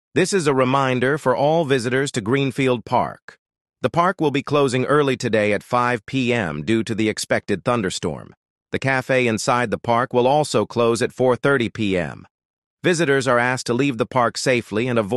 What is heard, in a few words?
A Radio Announcement